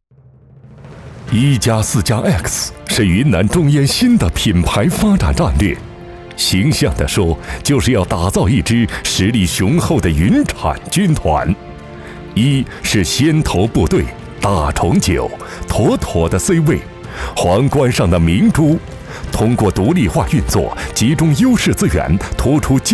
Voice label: 男 宣传; 中烟大重九

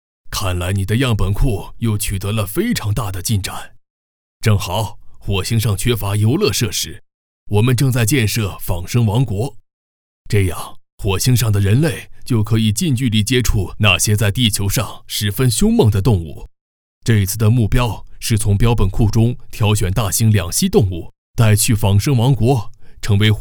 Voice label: 角色 老年音; 趣博士

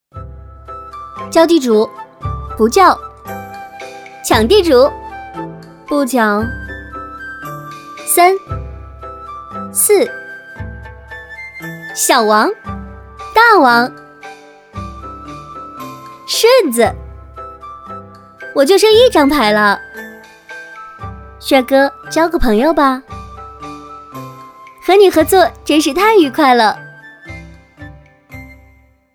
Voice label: 女 棋牌 斗地主

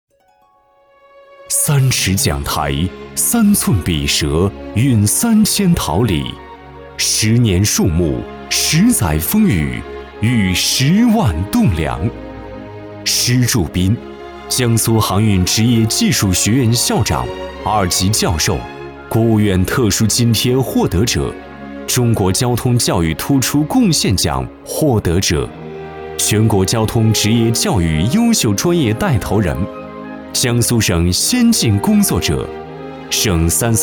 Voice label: 男 专题 人物介绍

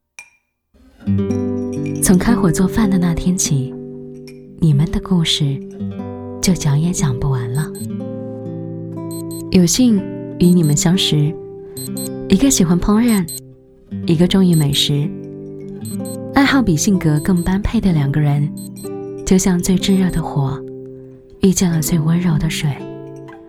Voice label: 女 温馨 广告; 雀巢

